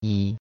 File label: 2, cantonese number 2